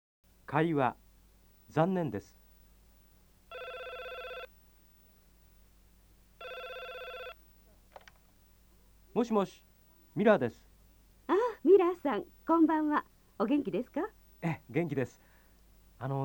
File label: nihongo dialog, jap